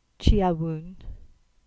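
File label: "Chywoone", Cornish; place-names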